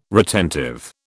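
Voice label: "retentive", British English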